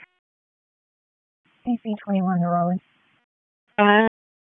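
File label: Police Northeast District Disp, radio; Raleigh Police Northeast District Disp; wake p25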